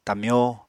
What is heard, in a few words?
"tamyó", apatani